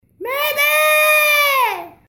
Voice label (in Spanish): mujer histerica